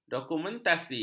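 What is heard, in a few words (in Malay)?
"dokumentasi", Malay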